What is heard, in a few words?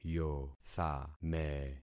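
"yothame", concatenated syllables; Affixing experiment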